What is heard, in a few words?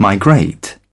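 "migrate", British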